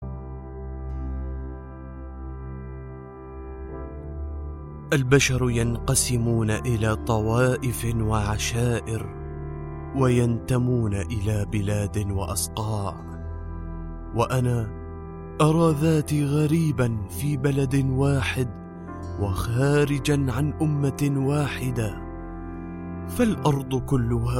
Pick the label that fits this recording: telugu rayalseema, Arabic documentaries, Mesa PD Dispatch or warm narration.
Arabic documentaries